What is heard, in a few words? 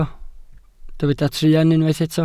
"truan", Welsh